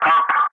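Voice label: "running", English; Ka-50; Wingman; Messages; Speech; DCS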